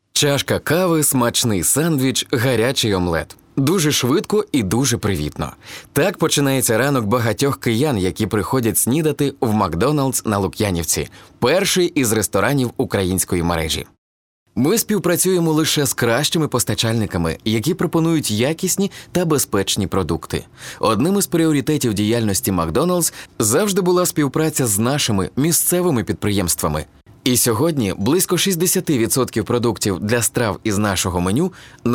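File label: Ukrainian, male, narration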